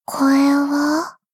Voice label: doubt